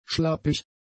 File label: "schlappig", German; adjectives